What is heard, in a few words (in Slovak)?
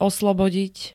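"oslobodiť", Slovak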